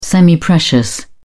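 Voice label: "semiprecious", English, British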